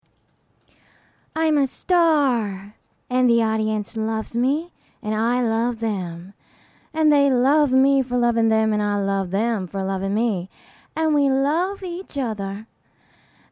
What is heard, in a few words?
en